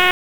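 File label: Raleigh Police North District Tactical, p25; wake p25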